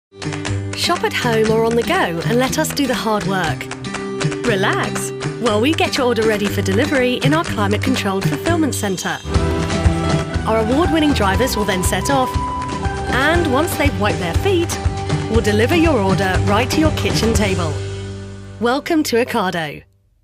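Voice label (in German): British English, Freundlich; Fremdtake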